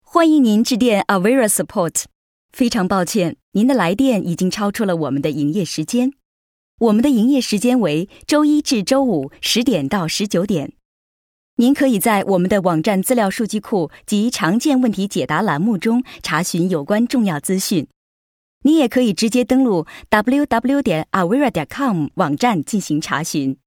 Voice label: w telefon, chinesisch, sprecher

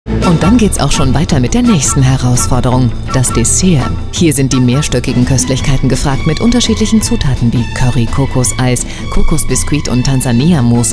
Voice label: de werbung, deutsch frauen; sprecher

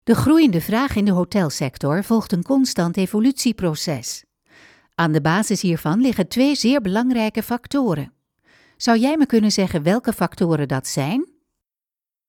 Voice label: niederlaendisch elearning; sprecher

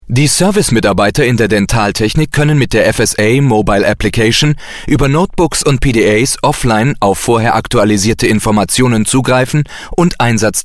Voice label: de elearning, deutsch maenner; sprecher

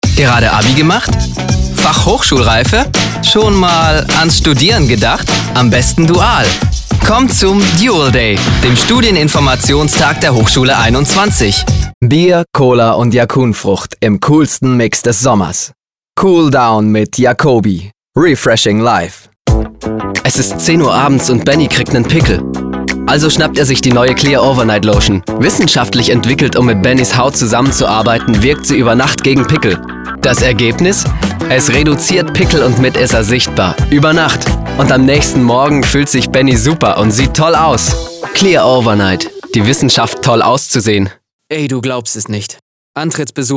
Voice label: werbung

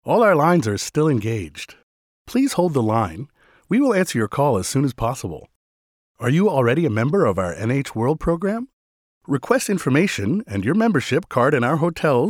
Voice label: US telefon, englisch; sprecher

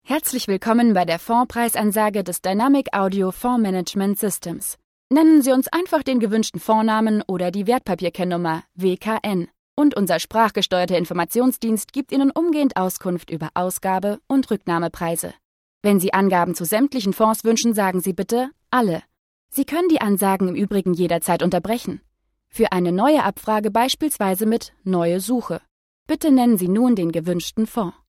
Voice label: deutsch frauen telefon

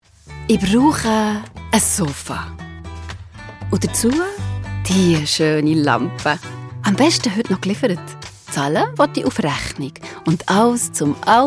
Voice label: schweizerisch werbung

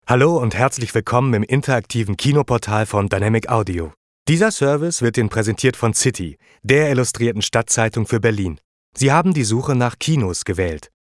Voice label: telefon, deutsch maenner; sprecher

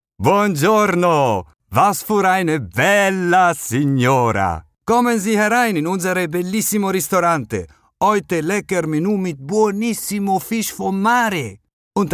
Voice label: deutsch italiener; sprecher

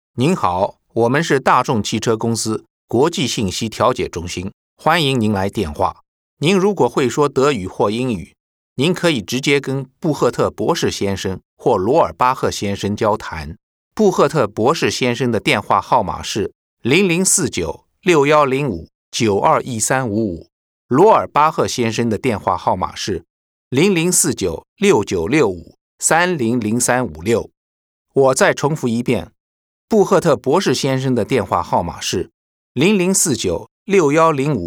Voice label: chinesisch mandarin telefon; sprecher

